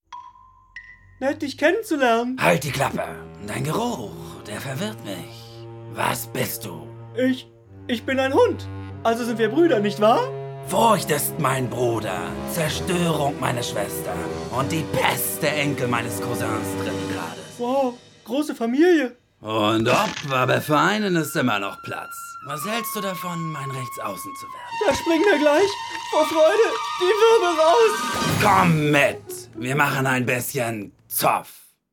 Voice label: synchro trickfilm

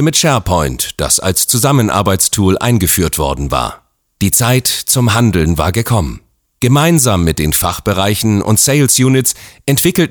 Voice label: imagefilm intranet

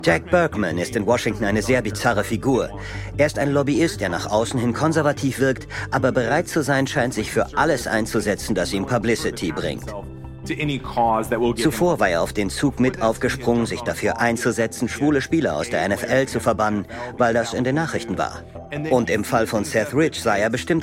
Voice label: voice over schnell

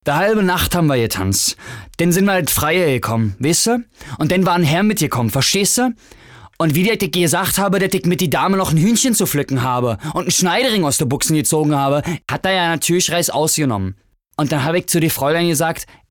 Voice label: Dialekt Berlin

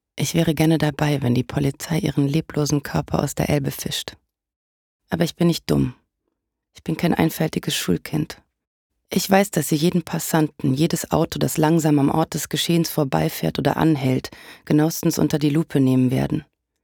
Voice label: hoerbuch thriller

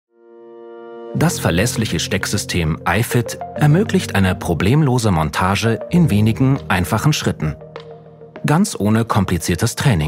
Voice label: Imagefilm; gf-ifit

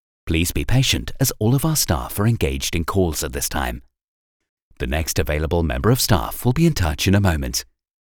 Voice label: telefonansage; coda

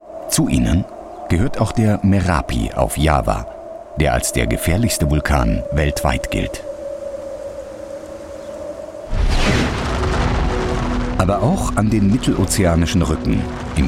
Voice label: tv doku vulkane; planet wissen

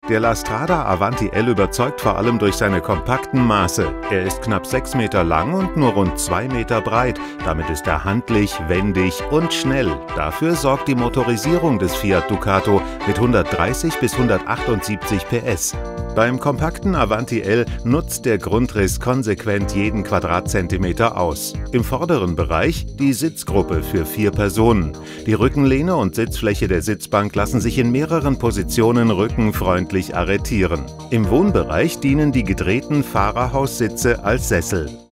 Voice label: produktfilm wohmobil

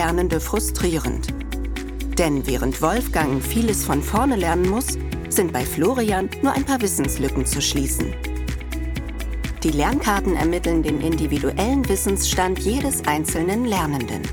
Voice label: E-Learning

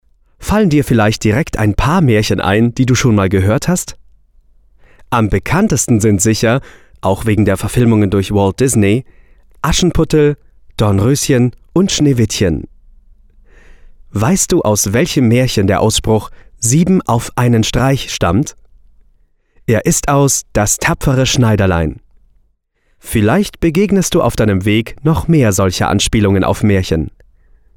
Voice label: audioguide

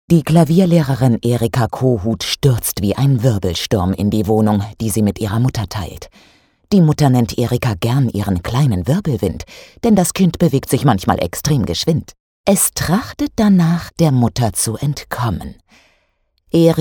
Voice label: hoerbuch

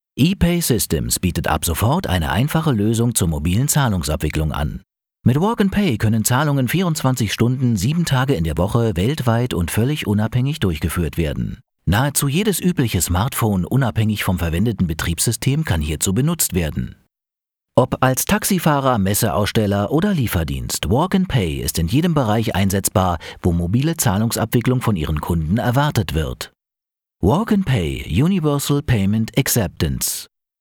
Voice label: imagefilm; walk n pay

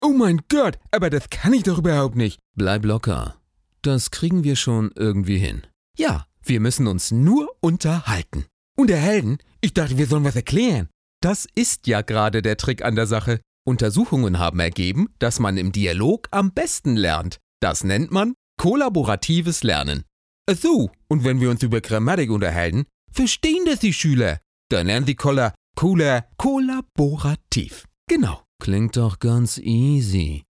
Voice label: stimmprobe charaktere

